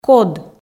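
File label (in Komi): "код", Komi, female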